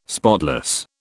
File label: "spotless", British